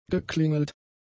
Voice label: "geklingelt", German; adjectives

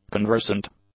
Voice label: "conversant", English; adjectives

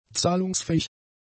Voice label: "zahlungsfähig", German; adjectives

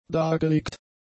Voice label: "dargelegt", German; adjectives

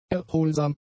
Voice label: "erholsam", German; adjectives

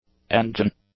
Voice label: "engine", English; nouns

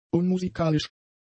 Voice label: "unmusikalisch", German; adjectives

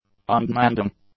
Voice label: "undemanding", English; adjectives

